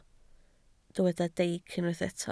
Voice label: "deic", Welsh